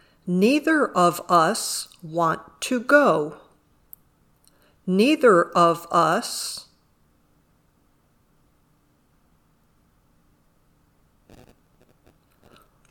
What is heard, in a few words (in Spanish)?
Dictado